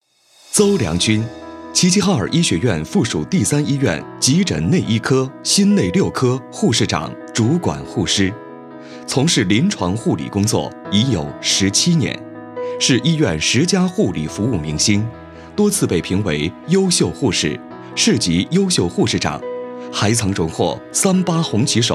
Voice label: 先进医师介绍

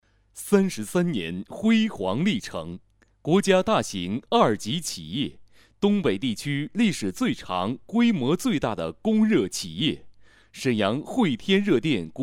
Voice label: 解说词; 惠天热电

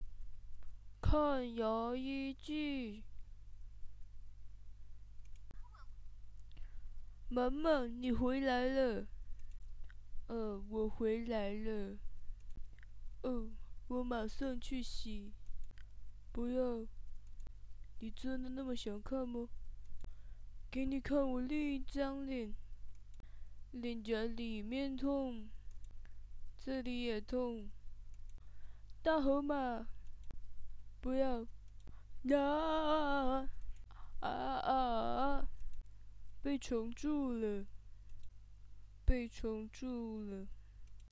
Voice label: 模仿; 蜡笔小新

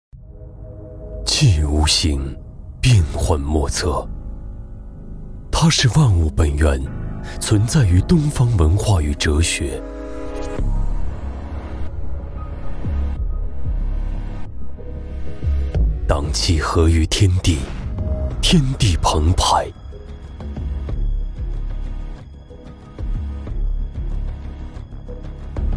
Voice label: 广告大气质感

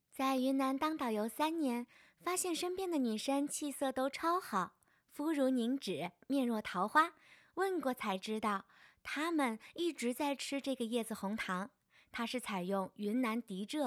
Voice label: 广告甜美女生产品

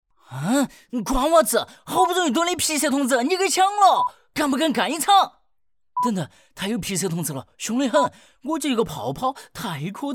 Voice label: 四川话试音

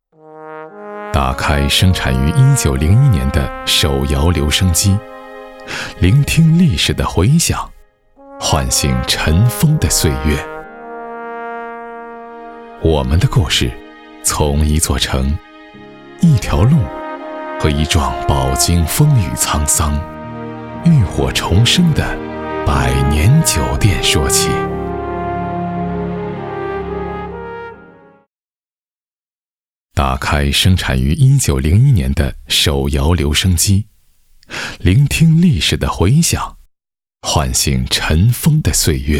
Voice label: 纪录片; 纪录片 澳门; 历史纪录片; 娓娓道来; 城市纪录片

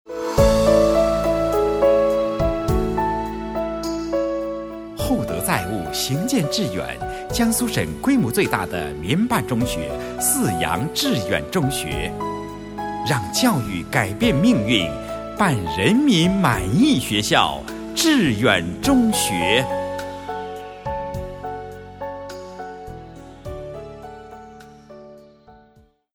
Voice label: 彩铃 浑厚中音配音; 泗阳致远中学

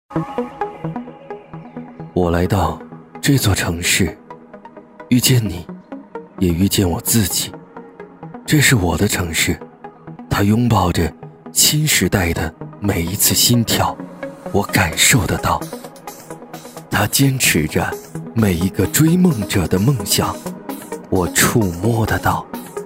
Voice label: 品质广告

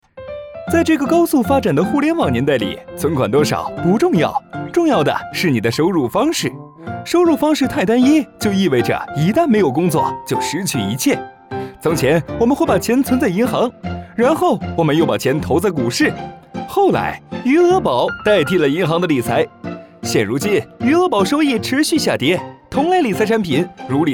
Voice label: 产品宣传

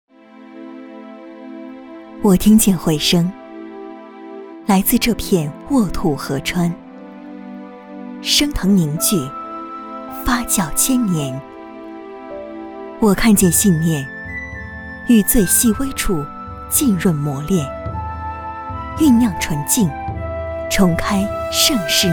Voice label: 大气广告; 洋河梦之蓝

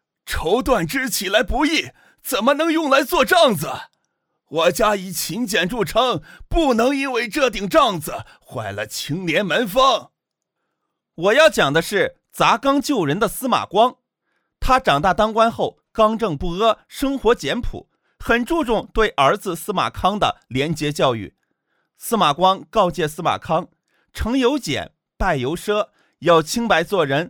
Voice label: 角色青中老年混剪

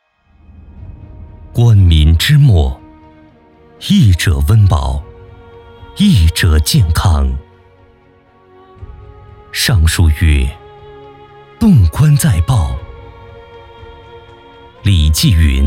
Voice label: 大气医宣; 医者仁心

